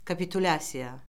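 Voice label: "kapitulyasiya", Azerbaijani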